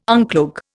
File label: "uncloak", Indian